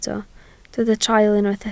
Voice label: "traul", Welsh